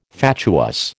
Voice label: "fatuous", American